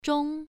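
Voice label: "zhong1", Chinese pronunciation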